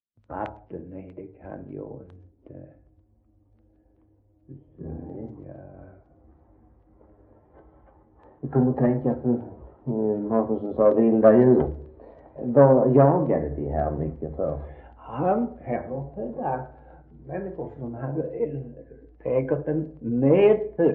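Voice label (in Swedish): Svensköp Skåne, dialekter; Folkminnen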